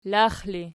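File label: "lax", ergative, Archi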